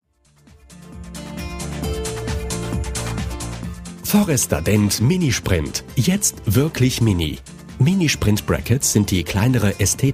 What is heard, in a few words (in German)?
Werbespot; Forestadent